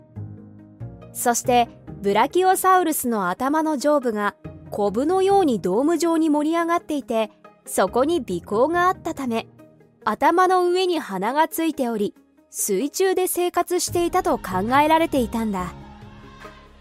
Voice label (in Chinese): 日语 女声